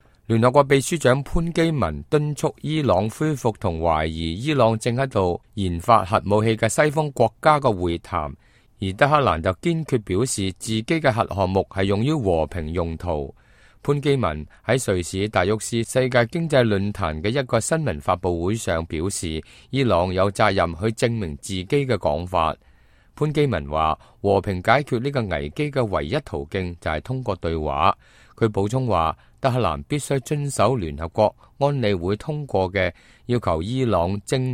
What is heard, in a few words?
cantonese, news